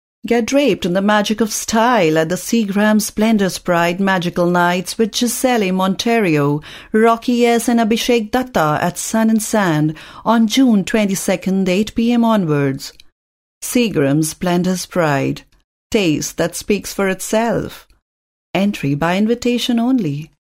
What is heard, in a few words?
drama english, female voice